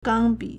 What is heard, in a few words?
"gang1 bi3", Chinese